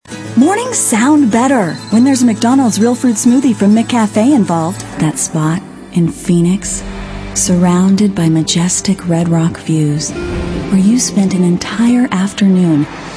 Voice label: female, late 30s early 40s, clean

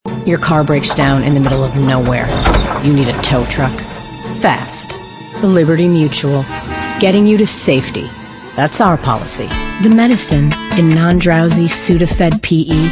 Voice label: natural character, female

